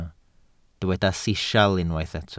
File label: "sisial", welsh